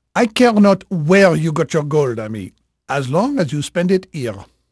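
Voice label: French male, voice; Pirates Of The Caribbean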